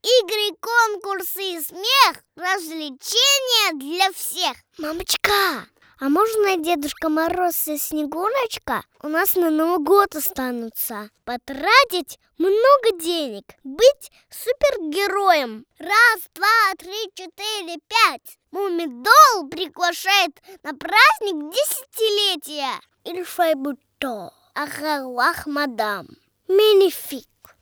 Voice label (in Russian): detskiy golos